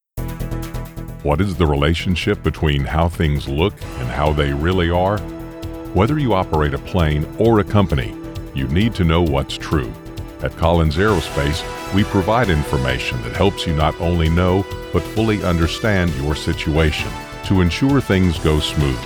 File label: corporate imaging; Collins Aerospace